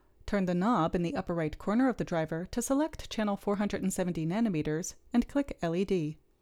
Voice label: Gong Voiceover